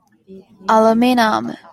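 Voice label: "aluminum", Japanese, female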